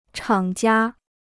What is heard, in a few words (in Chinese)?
"厂家", Chinese